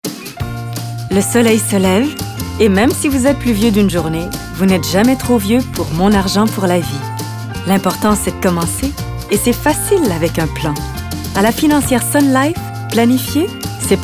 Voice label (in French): FR; SunLife